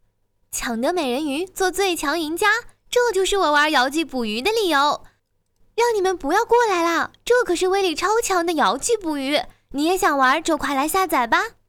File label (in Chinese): 游戏 信息流; 捕鱼